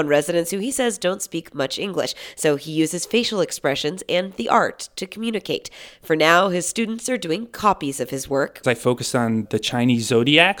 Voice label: CARTOON SENIORS